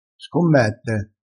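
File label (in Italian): "scommette", dialettale, crespinese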